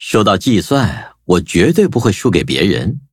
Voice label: levelup zh-hant; Cookie Run